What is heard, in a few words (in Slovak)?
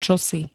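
"čosi", Slovak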